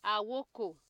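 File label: "awoko", Nigerian Pidgin, female; pcm-ng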